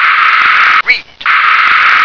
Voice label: "read", English

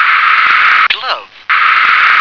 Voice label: "glove", English

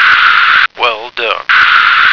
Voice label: "Well Done", American

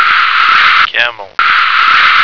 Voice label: "camel", American

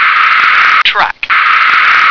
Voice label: "track", English

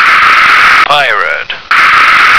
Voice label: "pirate", American

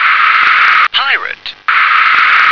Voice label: "pirate", English

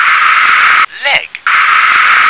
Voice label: "leg", English

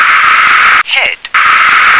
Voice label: "head", English

